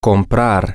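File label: to Buy, Spanish, male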